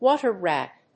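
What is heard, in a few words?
"water rat", English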